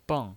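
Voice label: "beng", Mandarin Chinese, fourth tone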